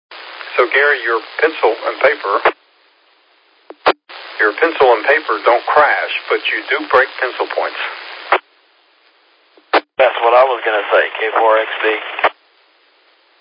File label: qso rec repeater logic